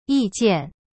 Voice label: "意见 yi jian", Chinese; cyberchinese